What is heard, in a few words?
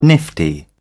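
"nifty", British English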